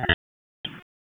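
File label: FIRE Operations, p25; FIRE OPS 2; wake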